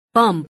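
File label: "bump", English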